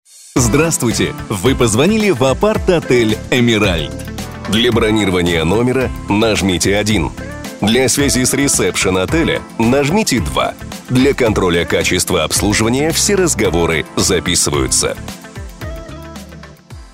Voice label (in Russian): Мужское приветствие IVR в апарт-отель; ДИКТОРЫ ДЛЯ IVR